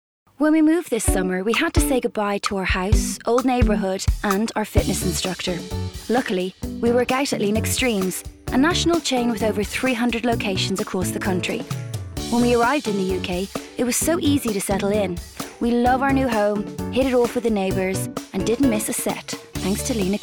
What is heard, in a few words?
narrative reads